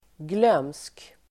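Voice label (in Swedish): "glömsk", Swedish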